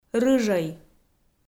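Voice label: "рыжӧй", Komi, female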